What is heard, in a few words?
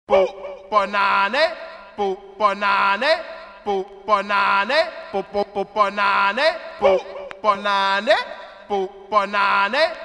acapella